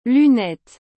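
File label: "lunettes", French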